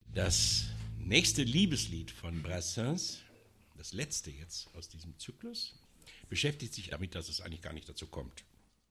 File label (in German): ansage; abgesang